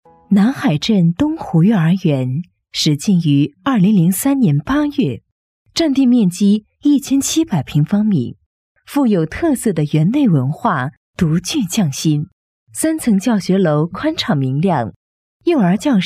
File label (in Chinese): 宣传学校; 东湖